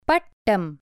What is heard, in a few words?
KITE, Tamil; Tamil 1A Lesson 3